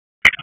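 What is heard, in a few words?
Raleigh Police Northeast District Tactical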